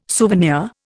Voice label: "souvenir", Indian